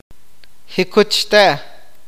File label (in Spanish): "recto", awakateko